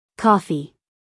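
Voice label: "coffee", American English, female; everyone-can-use-english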